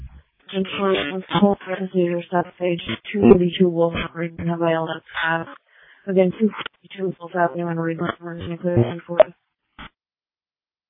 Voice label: Ambulance, dispatch